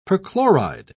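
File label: "perchloride", British English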